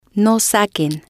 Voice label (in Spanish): "no Saquen", spanish